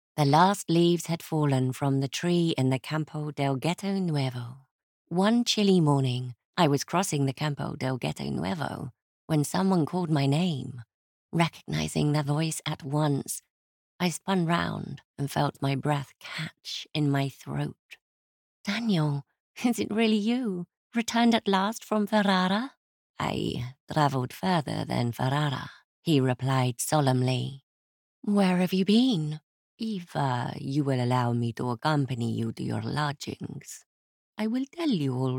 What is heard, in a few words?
british audiobooks